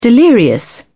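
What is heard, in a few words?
"delirious", British English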